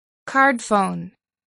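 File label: "cardphone", English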